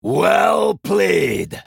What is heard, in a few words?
male dwarf well played